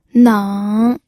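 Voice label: "neng", third tone, pinyin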